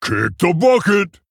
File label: Male Tauren Attack